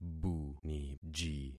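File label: "buniji", concatenated syllables; Affixing experiment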